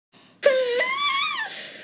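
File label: "huo3 ban4", Mandarin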